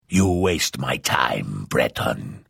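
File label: Hello, Vo; Morrowind GOTY GOG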